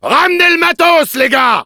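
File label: Demoman move up, French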